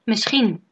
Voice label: maybe, dutch